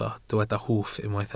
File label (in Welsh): "hwff" Welsh